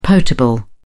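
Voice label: "potable", British English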